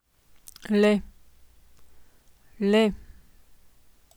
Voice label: "lait lait", French